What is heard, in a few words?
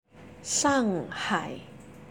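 "shanghai", Chinese; 上海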